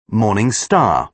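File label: "morning star", British English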